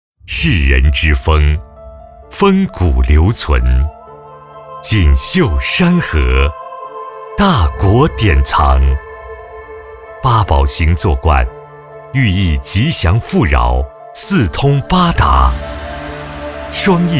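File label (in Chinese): 男 酒广告, 浑厚大气, 普通话; 国台酱香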